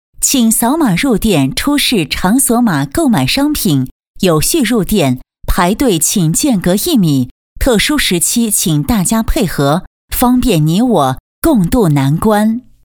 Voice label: 女, 店内提示词, 防疫, 磁性亲切; 磁性质感; 温柔知性

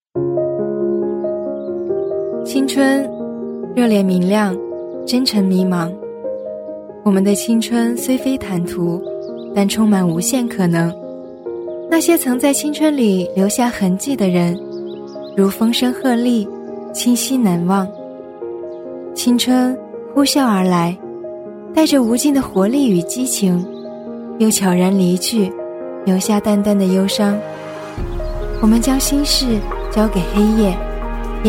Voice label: 女 走心旁白 青春 素人感; 甜美亲切

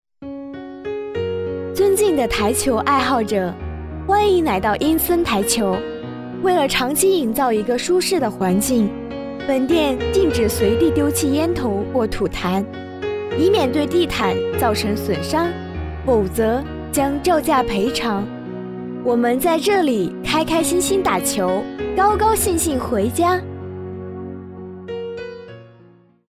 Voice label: 女 温馨提示 台球厅 年轻; 女007-年轻甜美; 甜美亲切

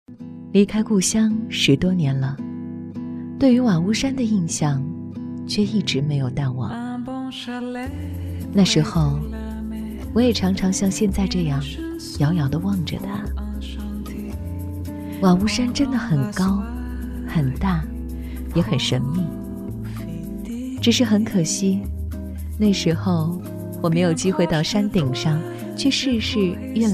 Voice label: 女 内心独白 瓦屋山 韵味回忆; 女18 -大气稳重; 低沉走心

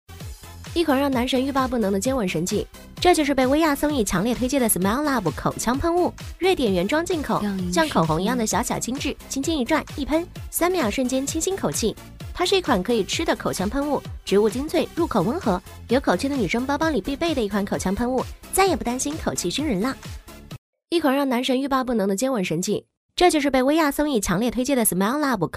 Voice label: 女-抖音带货【喷雾广告】

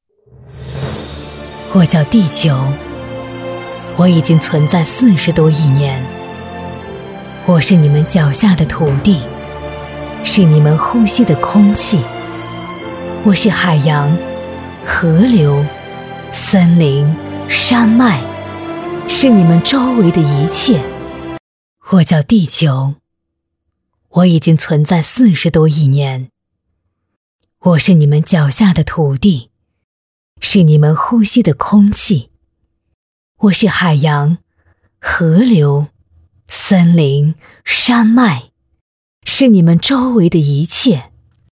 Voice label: 女 纪录片 自述

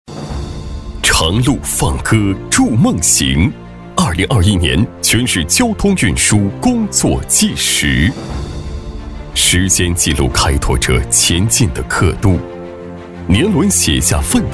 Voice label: 男, 政府汇报, 交通工作汇报, 大气激昂; 厚重有力; 大气浑厚

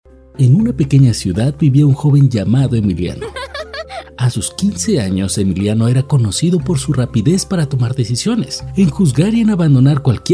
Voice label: 西班牙男声

